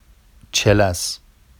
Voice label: “ʧelas”, Vafsi; چـِلَس

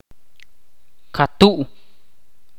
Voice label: "luna" in Ch'orti'

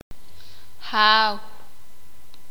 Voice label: sakapulteko; Dios

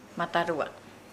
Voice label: Nukuoro; twenty

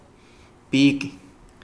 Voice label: pig, Kapingamarangi

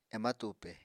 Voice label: "ema tupe", Apatani

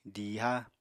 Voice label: "diiha", Apatani

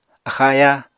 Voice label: "axa-ayá", Apatani

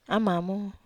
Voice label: "amamo", Wamesa

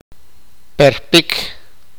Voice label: sipakapense; ancho

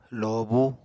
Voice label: "lobú", Apatani; Material Culture

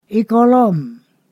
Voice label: "tomorrow", Ponosakan

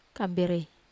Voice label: "kamberei", Wamesa

